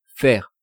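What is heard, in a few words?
"faire", French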